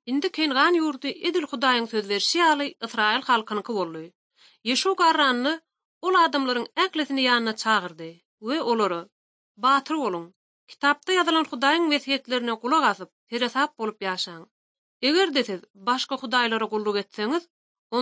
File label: turkmen, ot drama